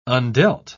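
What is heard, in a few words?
"undealt", British English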